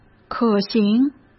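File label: "可行", Mandarin Chinese; chinese-flashcards; hsk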